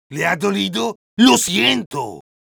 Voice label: Medic special completed es